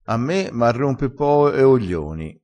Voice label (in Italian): "a me m ha rompe", Crespinese